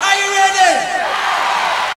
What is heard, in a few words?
ARE U READY, rave